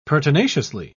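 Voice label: "pertinaciously", British English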